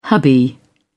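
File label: "hubby", English, uk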